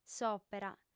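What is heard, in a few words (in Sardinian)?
"s'òpera", female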